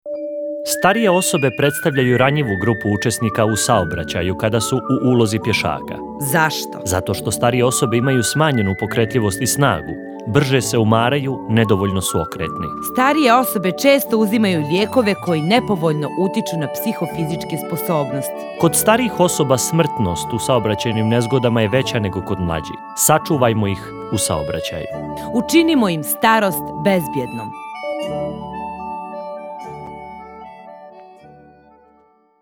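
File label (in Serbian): Radio džingl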